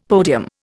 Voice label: "podium", Indian; Dictionary